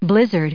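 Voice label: "blizzard", English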